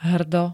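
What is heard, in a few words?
"hrdo", Slovak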